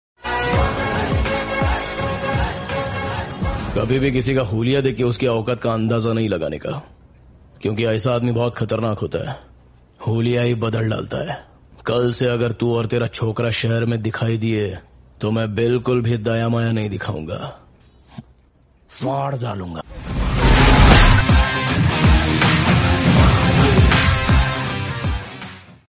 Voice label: attitude dialogue; maari